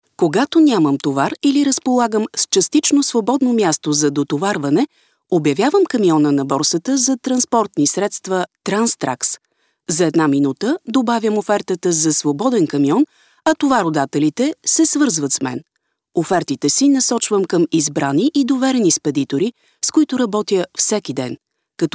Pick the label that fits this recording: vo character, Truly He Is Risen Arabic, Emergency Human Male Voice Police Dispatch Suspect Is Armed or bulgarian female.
bulgarian female